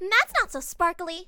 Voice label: angry; melody; maiko